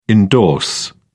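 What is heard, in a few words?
"indorse", British English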